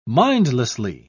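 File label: "mindlessly", British English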